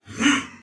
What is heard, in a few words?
Attack Soldier Male; Sinecure